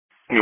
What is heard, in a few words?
Police Patrol 1 (Dispatch)